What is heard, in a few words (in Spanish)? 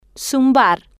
"zumbar", Spanish